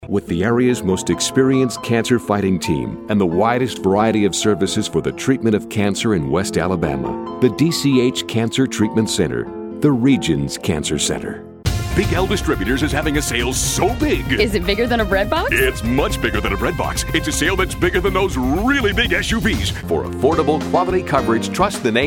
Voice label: Men Commercial